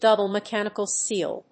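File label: "double mechanical seal", English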